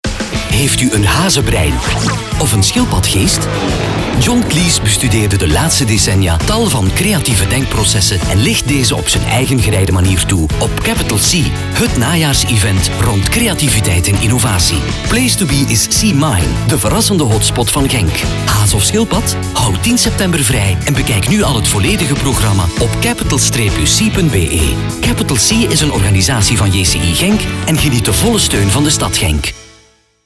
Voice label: flemish male; dictor; dictor-sounds

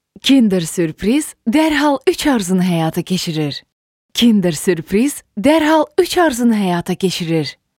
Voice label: azeri female

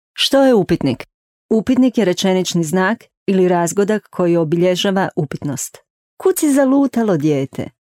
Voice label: croatian female; dictor; dictor-sounds

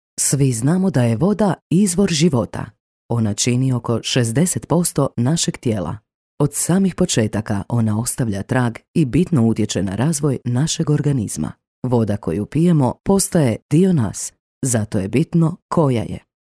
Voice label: croatian female; dictor; dictor-sounds

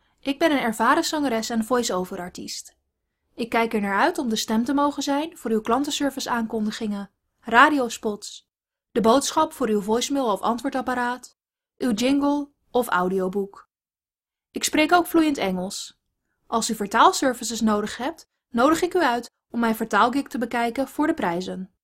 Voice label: dutch female; dictor; dictor-sounds

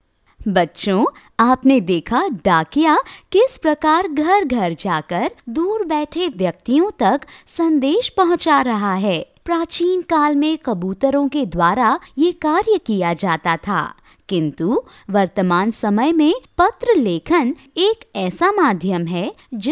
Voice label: hindi female; dictor; dictor-sounds

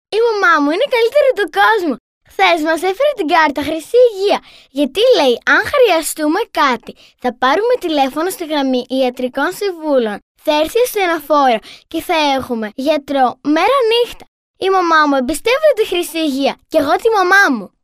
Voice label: greek girl

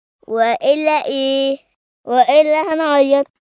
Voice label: arabic boy

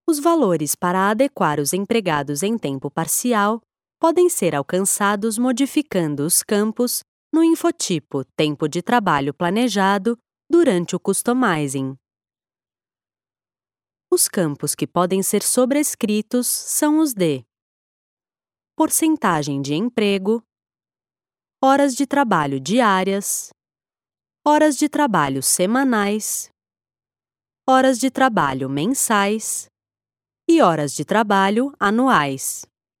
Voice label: brazilian portuguese female; dictor-sounds

